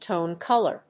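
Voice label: "Tone color", English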